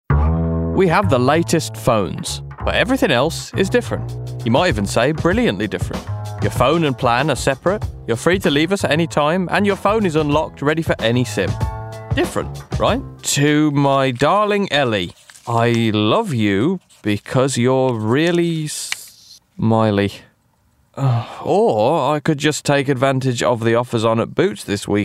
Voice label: Commercial Voice reel

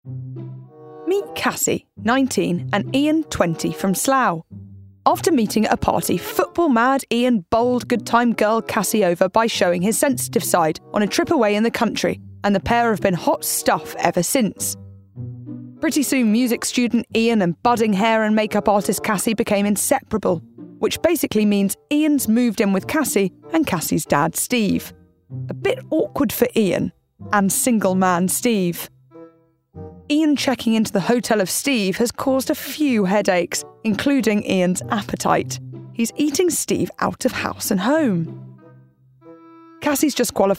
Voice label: DOCUMENTARY; Hotel of Mum Dad

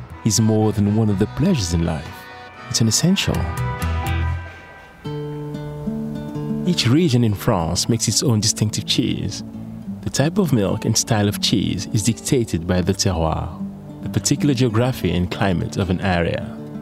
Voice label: Documentary French Food

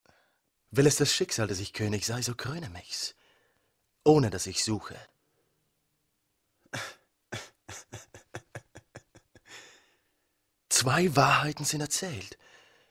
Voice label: German language clips

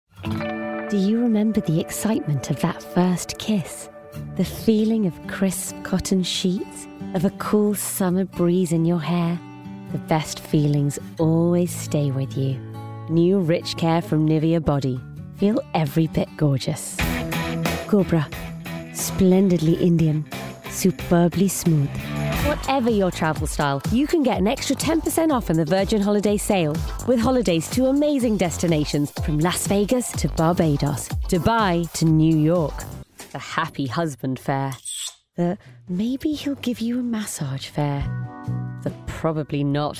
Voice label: Commercial Reel

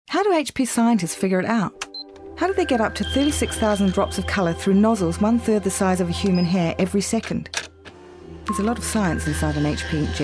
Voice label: commercial reel

